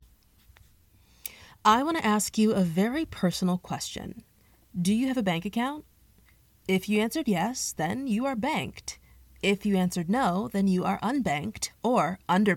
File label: Elevator Pitch Female VO; Econofi